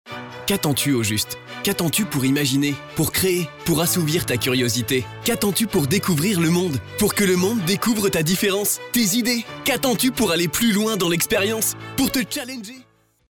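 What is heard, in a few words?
French France, male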